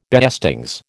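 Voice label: "beestings", American English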